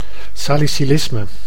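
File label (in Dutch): "salicylisme", Dutch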